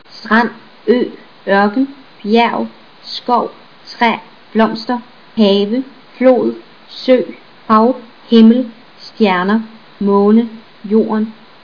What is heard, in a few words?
danish